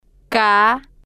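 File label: "k", spanish, female, slow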